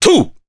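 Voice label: Vox Jump, Japanese; Nicx; kingsraid; voices heroes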